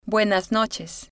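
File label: "buenas Noches", spanish, female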